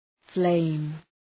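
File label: "flame", English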